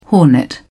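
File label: "hornet", British English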